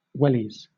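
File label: "wellies" English, British; pronunciations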